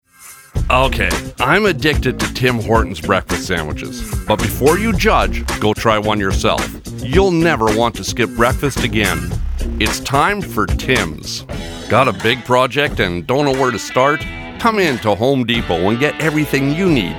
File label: commercial